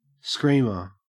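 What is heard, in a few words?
"screamer", Australian English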